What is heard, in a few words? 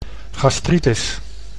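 "gastritis", Dutch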